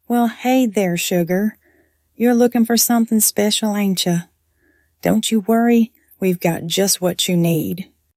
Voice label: warm southern woman; voice over